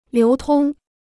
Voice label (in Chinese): "流通", Chinese